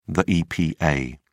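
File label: "epa", English, British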